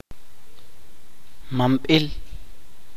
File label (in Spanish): "padre", Chuj